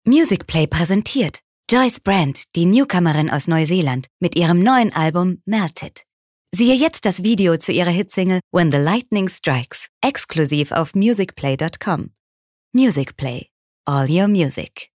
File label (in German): Freundlich; Musicplay